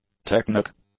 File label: "technic", English; adjectives